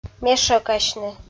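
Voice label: "мешӧкасьны", Komi, female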